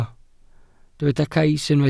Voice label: "cais", welsh

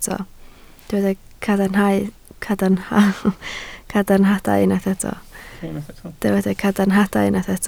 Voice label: "cadarnhadau", Welsh

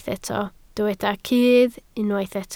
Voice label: "cudd", Welsh

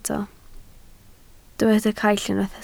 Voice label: "caill", Welsh

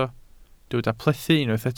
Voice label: "plethu", Welsh

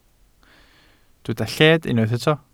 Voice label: "lled", welsh

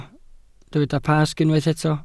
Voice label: "Pasg", Welsh

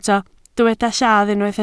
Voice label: "lladd", Welsh

